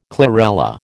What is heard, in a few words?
"chlorella", American